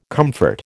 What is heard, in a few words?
"comfort", American